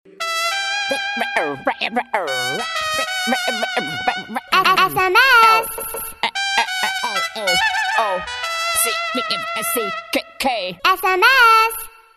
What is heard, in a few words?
Child Voice; Klingelton